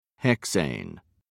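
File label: "hexane", English, British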